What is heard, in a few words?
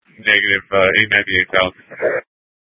Sheriff, scanner; Shrf Lakes